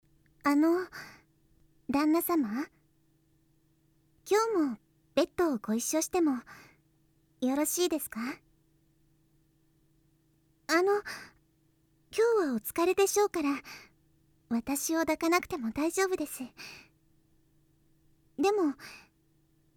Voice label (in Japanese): 添い寝音声